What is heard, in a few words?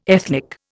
"ethnic", Indian